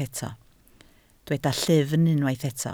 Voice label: "llyfn", Welsh

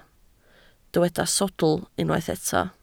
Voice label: "sawdl", Welsh

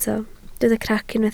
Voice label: "crac", Welsh

